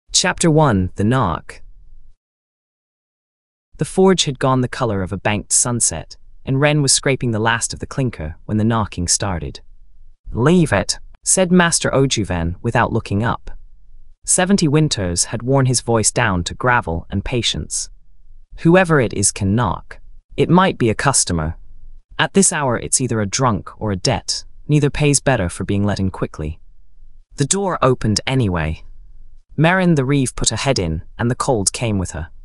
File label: narrator